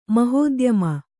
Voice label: "ಮಹೋದ್ಯಮಿ", Kannada

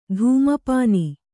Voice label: "ಧೂಮಪಾನ", Kannada

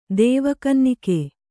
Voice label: "ದೇವಕನ್ನಿಕೆ", Kannada

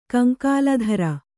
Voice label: "ಕಂಕಾಲಧರ", Kannada; kaŋkāladhara; noun

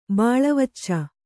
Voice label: "ಬಾಳವಚ್ಚ", Kannada

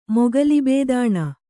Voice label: "ಮೊಗಲಿಬೇದಾಣ", Kannada; mogalibēdāṇa; noun

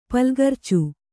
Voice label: "ಪುಲ್ಗರ್ಚು", Kannada

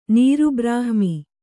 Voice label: "ನೀರುಬ್ರಹ್ಮಿ", Kannada

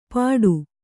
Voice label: "ಪಟು", Kannada

